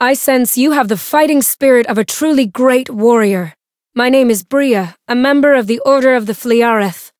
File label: story; buria